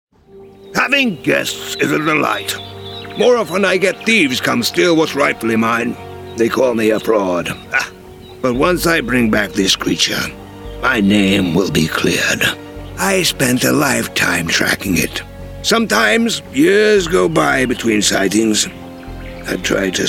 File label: Character